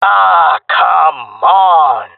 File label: taking fire friendly, jungle male; agents